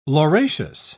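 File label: "lauraceous", British English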